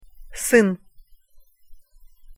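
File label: "syn", Russian pronunciation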